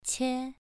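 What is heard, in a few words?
"qie", tone 2, pronunciation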